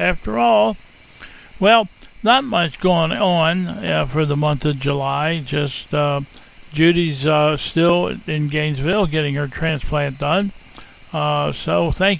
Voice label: Welcome and Announcements